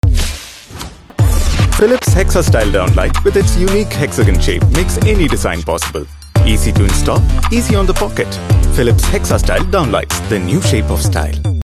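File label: english; philips hexastyle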